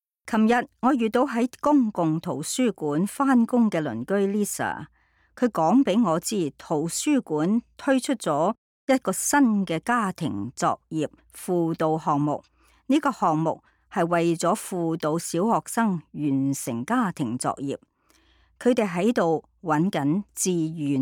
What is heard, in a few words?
Listening Cantonese Item